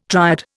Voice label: "triad", Indian

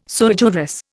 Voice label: "sui juris", Indian

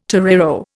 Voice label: "torero", Indian

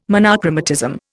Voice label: "monochromatism", Indian

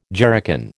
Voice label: "jerrycan", American

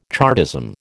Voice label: "Chartism", American

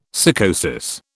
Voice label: "sycosis", British

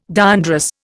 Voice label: "diandrous", Indian

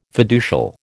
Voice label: "fiducial", American

